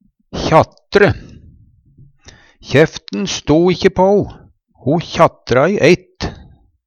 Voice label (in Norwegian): kjatre, Numedalsmål; Verb